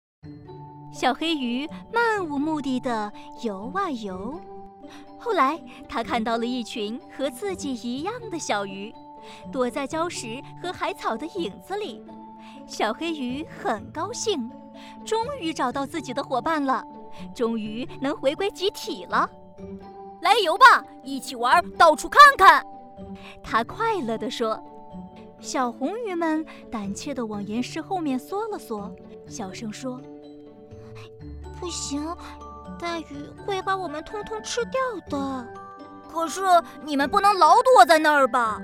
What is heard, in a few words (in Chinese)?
儿童绘本故事